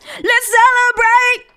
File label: LETS CELEBR, FEMALE VOICE; Best Service; Hallelujah